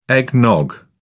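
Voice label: "eggnog", British English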